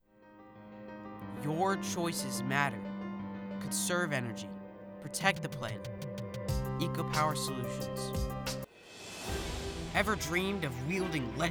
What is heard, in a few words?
voiceover samples